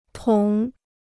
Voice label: "捅", Chinese

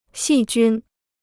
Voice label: "细菌", Chinese

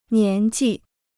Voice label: "年纪", Chinese

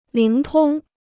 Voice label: "灵通", Chinese

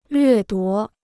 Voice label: "掠夺", Chinese

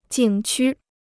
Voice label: "景区", Chinese

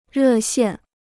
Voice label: "热线", Chinese

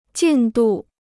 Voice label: "进度", Chinese

